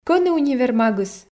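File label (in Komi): кӧні универмагыс, Komi, female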